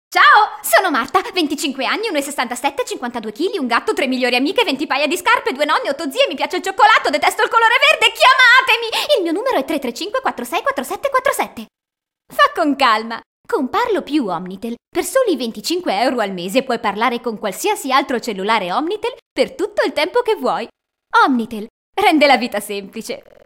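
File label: Italian, Commercial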